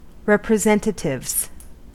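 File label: "representatives", US English; pronunciations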